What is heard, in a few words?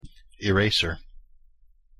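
"eraser" pronunciation English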